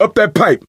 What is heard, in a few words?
misc directional, coach, survivor voice